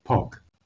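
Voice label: "pok", Proto-Indo-European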